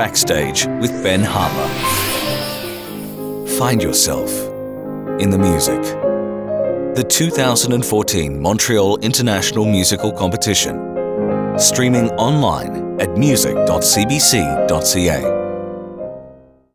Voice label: Male Voice Over Radio Imaging Classical

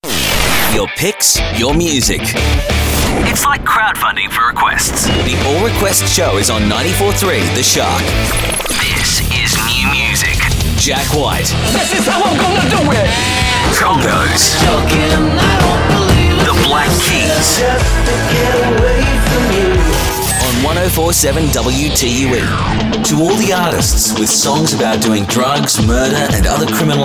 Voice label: Male Voice Over Radio Imaging New Rock

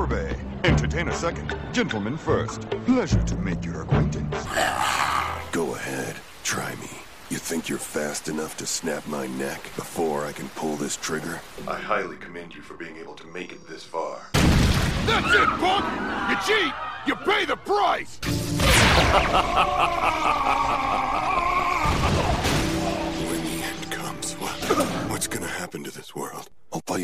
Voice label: Male Voice Over Character

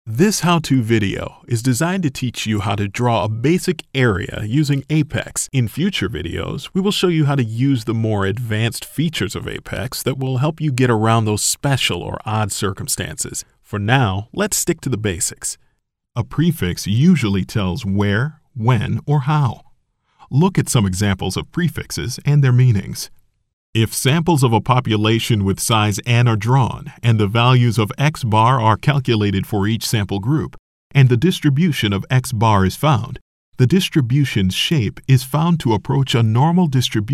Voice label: Male Voice Over Talents Elearning; DEMO REEL

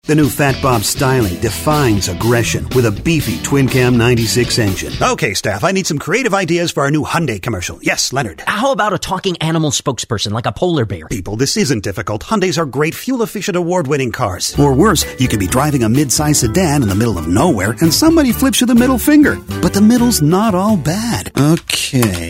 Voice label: Male Voice Over, Character

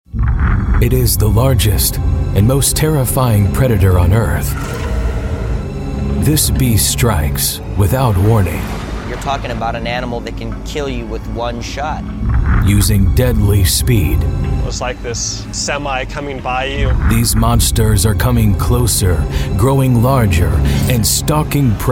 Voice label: TV Narration, Male Voice Over

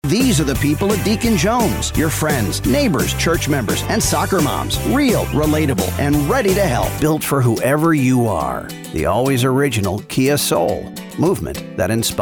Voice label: Automotive Commercial

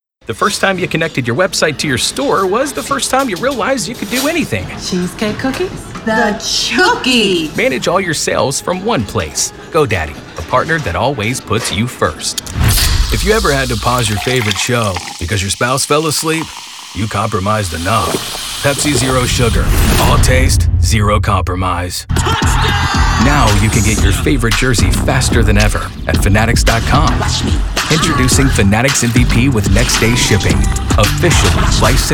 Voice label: Male Voice Over Commercial

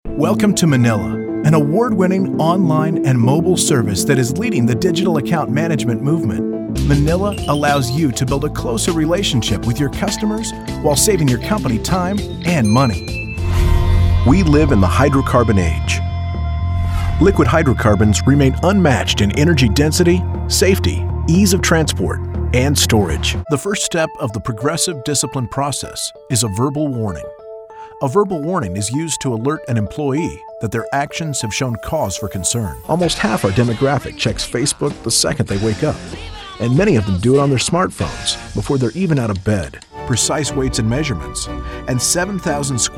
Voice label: Male Voice Over Talent Corporate Narration

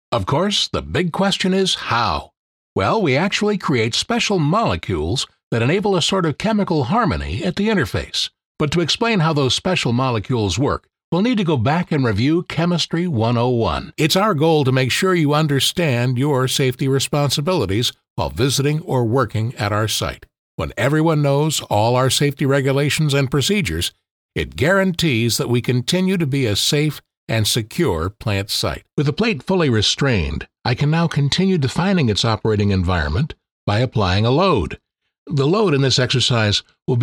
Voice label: Male Voice Over Talent E Learning

